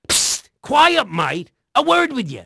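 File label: English male; Pirates Of The Caribbean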